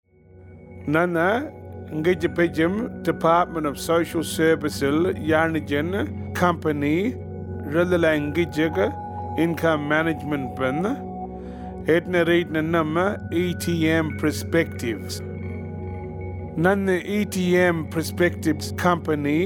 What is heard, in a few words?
Arrente Western; Income Management Consult